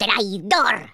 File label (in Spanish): "traitor", Spanish; speech